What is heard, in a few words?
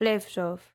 female fish, greek